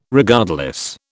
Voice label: "Regardless", British